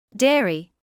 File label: "dairy", English